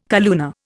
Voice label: "calluna", Indian